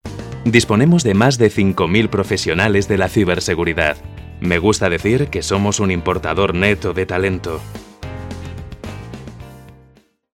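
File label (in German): Spanish, Fremdtake Neutral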